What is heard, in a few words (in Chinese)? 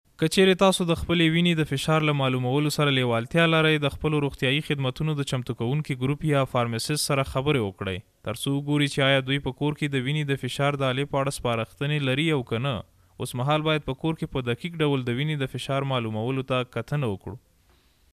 波斯语男年轻时尚